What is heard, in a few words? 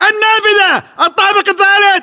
location third floor window, english battlechatter